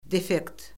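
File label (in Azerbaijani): "defekt", Azerbaijani; Orfoqrafiya Lüğəti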